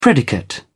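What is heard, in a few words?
"predicate", English, British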